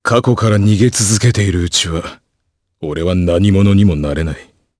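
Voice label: voice, Japanese; Chase; kingsraid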